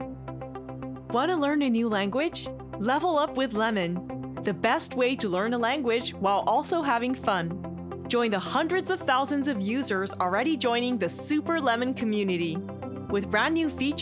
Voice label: foreigner